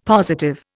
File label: "positive", Indian; Dictionary